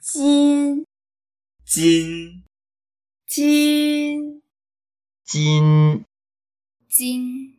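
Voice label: "jin1", Mandarin Chinese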